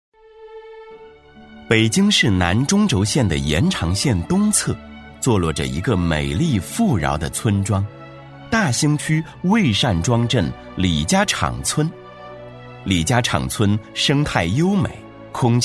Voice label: 男 专题片 大气唯美; 磁性低沉; 沉稳大气

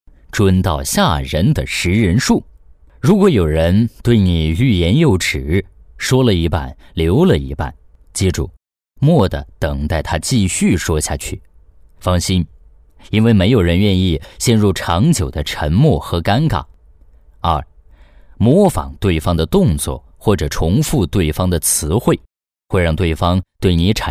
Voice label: 男 抖音解说; 声音百变; 沉稳大气; 识人术

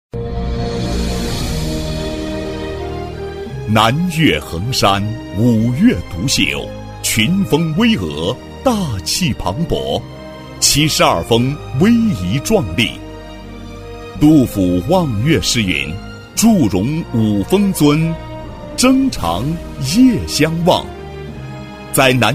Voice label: 男 项目规划 大气厚重; 衡山森林公园; 大气激情 大气浑厚; 男138-项目规划《衡山森林公园》-大气厚重

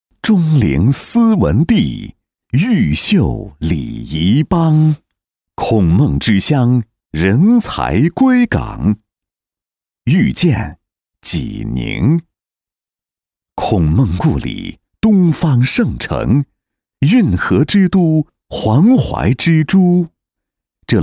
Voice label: 男 城市宣传 大气恢宏; 遇见济宁; 沉稳大气

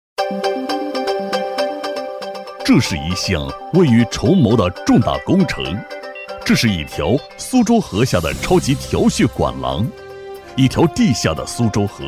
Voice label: 男, 工程讲解, 大气厚重; 苏州河治水; 硬朗力度 大气浑厚

